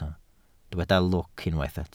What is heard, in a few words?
"lwc", Welsh